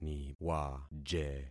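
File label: "niwaje", concatenated syllables; Affixing experiment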